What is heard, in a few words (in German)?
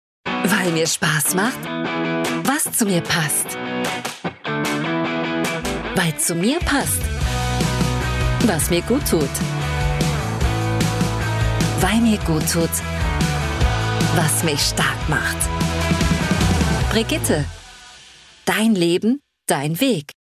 TV spot National, natuerlich frisch; BrigitteGrunerUndJahr